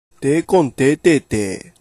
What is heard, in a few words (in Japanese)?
"deekon", hougen; でぇこんてぇてぇてぇ; 「大根炊いておいて」の意。; 岡山弁; 「でぇこん」が「大根」、「てぇて」が「炊いて」の意。; 方言